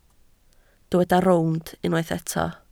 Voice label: "rownd", Welsh

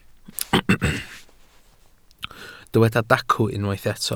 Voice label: "dacw", Welsh

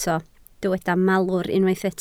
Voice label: "malwr", Welsh

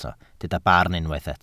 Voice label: "barn", welsh

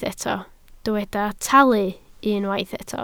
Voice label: "talu", Welsh

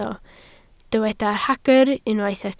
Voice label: "hagr", Welsh

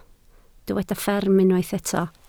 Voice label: "fferm", Welsh

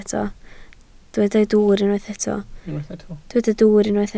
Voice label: "dwwr", Welsh

